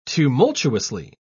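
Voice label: "tumultuously", British English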